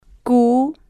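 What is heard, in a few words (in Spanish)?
"q", spanish, female, slow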